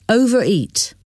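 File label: "overeat", English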